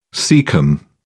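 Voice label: "cecum", British English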